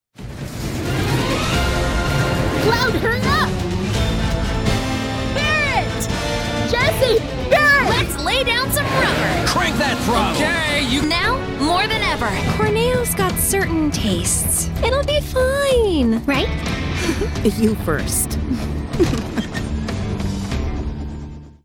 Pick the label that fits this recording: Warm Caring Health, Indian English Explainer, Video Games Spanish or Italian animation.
Video Games Spanish